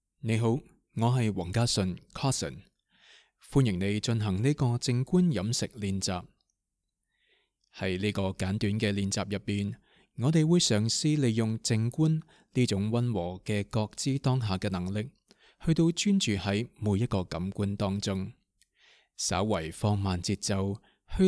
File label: 靜觀飲食 mindful eating cantonese